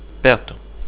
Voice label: near, portuguese